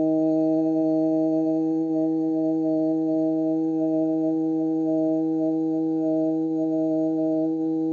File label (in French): "uuu", Voyelles; TEACH